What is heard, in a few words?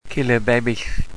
"cilybebyll", wales